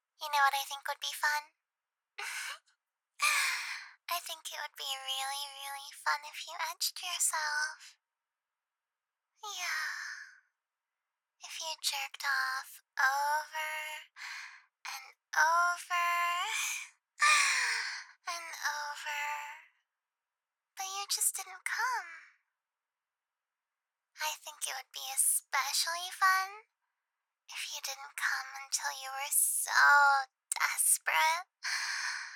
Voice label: voicemail